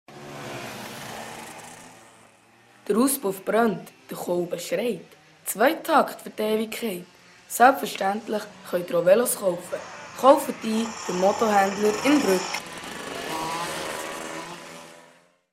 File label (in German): Werbung; Toeffli; Bruegg; Moeschler; Studen